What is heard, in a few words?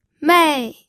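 "mei", fourth tone, pinyin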